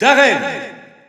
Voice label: French Announcer; Robin; SSBU